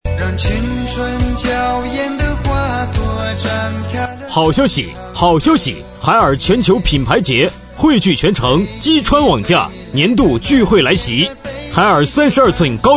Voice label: 男声促销; 海尔全球品牌节

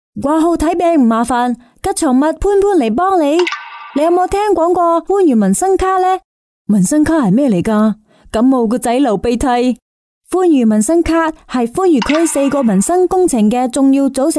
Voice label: 粤语; 番禺民生卡

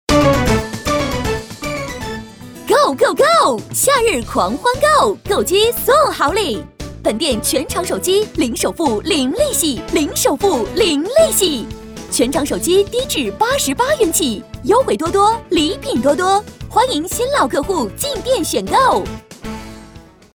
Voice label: 女声促销手机卖场激情活跃

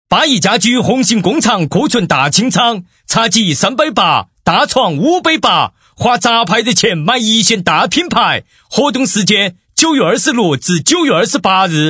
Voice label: 八一大气促销四川

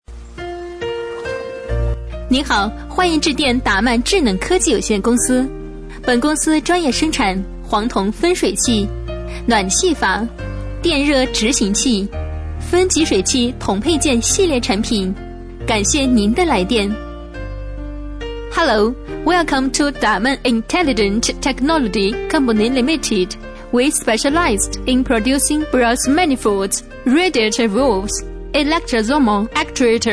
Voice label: 女声【中英彩铃】; 达曼智能科技

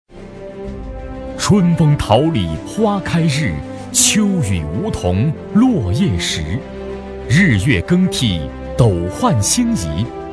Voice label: 男声专题大气

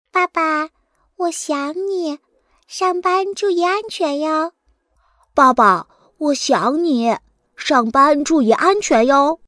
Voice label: 女孩男孩

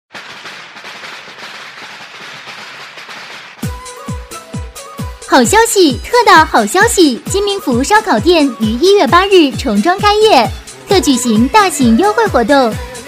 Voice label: 广告 音乐 烧烤店开业

